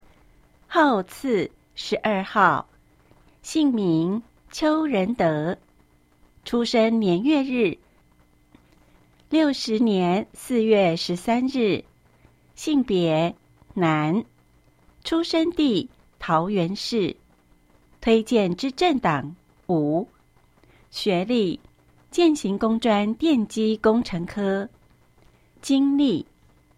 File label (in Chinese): 國語; 有聲公報; 市議員